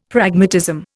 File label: "Pragmatism", Indian; Dictionary